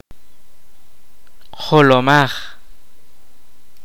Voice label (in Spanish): "cabeza", Kaqchikel